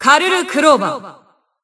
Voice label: System Voice; BBCP; Bullet